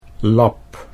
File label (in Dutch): "lap", Dutch